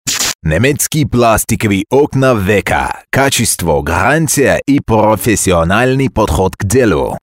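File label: German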